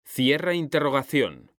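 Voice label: "CERRAR INTERROGACION", Spanish; mekanta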